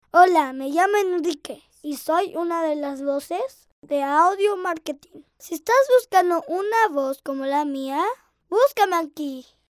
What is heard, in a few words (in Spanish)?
locutor nino; infantiles